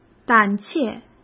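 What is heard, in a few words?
"胆怯", Mandarin Chinese; chinese flashcards; hsk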